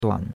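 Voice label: "duan3", Chinese; Cours de Chinois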